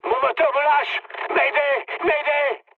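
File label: French damaged engine mood med; War Thunder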